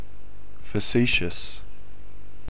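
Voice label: "facetious", Australian English; pronunciations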